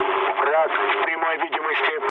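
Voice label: Russian enemy detected mood low, speech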